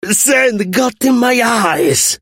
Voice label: hurt vo; chuck; scorpion